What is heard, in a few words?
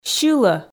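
"сьылӧ", Komi, female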